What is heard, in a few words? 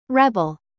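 "bass", noun, British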